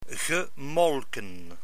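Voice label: "gemolken", Dutch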